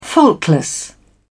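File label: "faultless", British English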